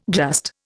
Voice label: "just", Indian

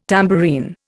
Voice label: "tambourine", Indian

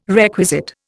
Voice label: "requisite", Indian

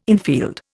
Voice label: "infield", Indian

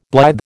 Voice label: "blithe", American

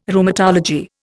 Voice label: "Rheumatology", Indian

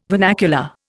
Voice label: "vernacular", Indian

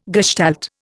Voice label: "gestalt", Indian

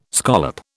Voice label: "scallop", British

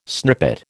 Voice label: "snippet", American

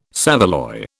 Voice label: "saveloy", British

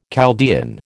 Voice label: "Chaldean", American

